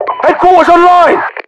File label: announcer hq setup, Voiceovers